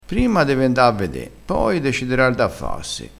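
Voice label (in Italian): "da fassi", dialettale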